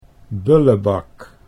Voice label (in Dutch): "bullebak", Dutch